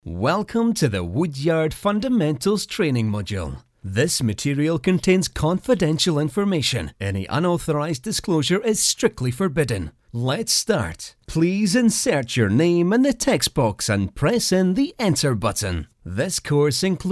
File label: english scottish e-learning